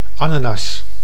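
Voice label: "ananas", Dutch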